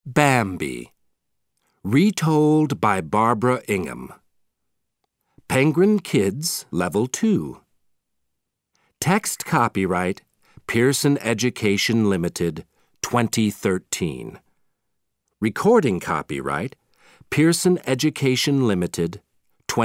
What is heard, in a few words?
Audio British English Intro Titles